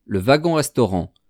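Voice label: "le wagon restaurant", French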